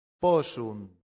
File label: "possunt", latin; santillana